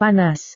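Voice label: "panas", Indonesian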